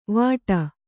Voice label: "ವಾಟಿ", Kannada